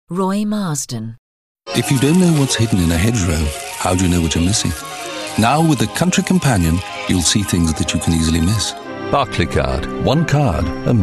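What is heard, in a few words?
commercials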